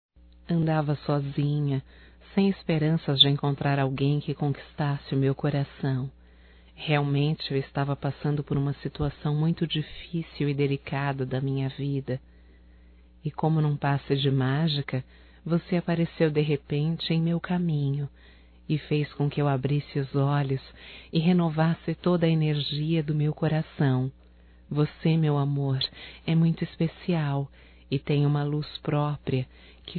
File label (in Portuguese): ROMÂNTICA (F); Românticas